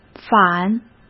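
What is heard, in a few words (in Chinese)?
"反", Mandarin Chinese; chinese-flashcards; hsk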